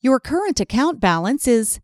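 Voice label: Current Balance, IVR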